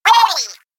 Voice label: Female Hey; Splatoon